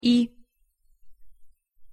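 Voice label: "и", Russian pronunciation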